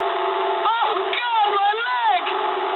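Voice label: damaged pilot mood high, speech English; War Thunder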